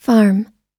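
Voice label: "farm", American English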